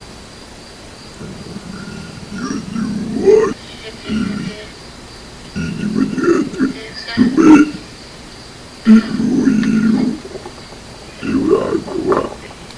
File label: 2 voices; Sasquatch; Sasquach Growl; Ontario, Canada